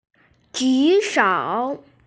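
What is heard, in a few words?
"jushou", Mandarin Chinese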